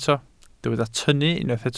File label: "tynnu", Welsh